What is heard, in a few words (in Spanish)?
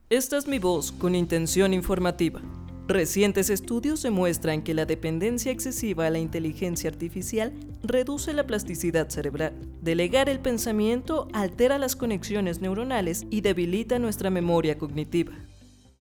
Informativa Musicalizada, locutora